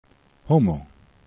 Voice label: "homo", esperanto